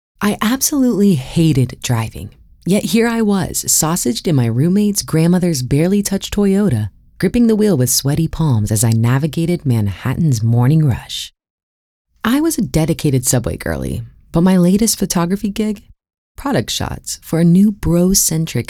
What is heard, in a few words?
female voiceover romance audiobook narration